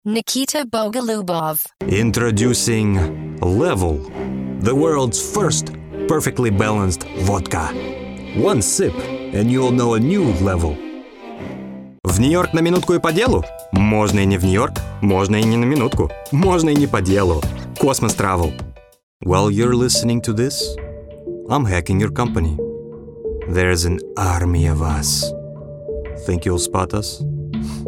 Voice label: Accent Foreign Language Voice Over